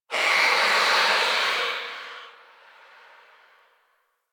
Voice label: Whisper Pain; Enemies; Stalker Acolyte